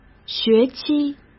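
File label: "学期", Mandarin Chinese; chinese-flashcards; hsk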